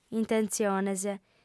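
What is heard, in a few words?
"intentziones", female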